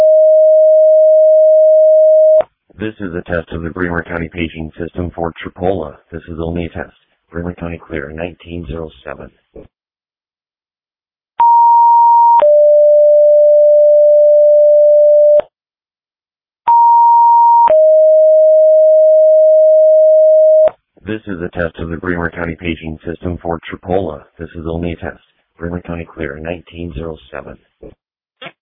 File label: Tripoli Fire, dispatch